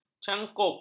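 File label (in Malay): "cangkup", Malay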